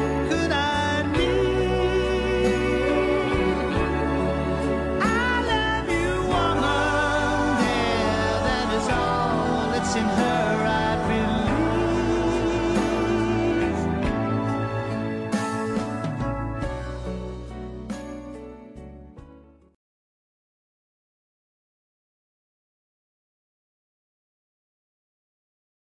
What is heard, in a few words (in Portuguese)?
dn esposa masc, dia dos namorados para esposa voz masculina; dia dos namorados para ex namorada voz masculina